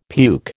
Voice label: "puke", American

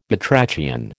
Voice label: "batrachian", American

